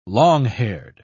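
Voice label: "long-haired", British English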